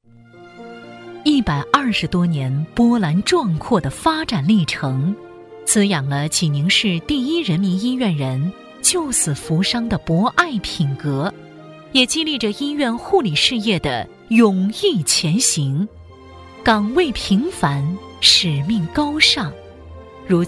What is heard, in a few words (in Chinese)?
女 医院宣传片 大气希望; 沉稳大气; 我们这十年